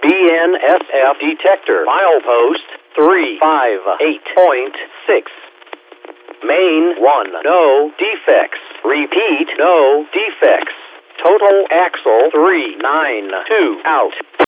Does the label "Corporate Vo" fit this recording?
No